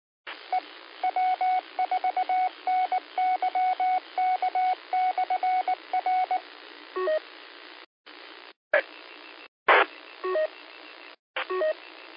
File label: qso rec simplex logic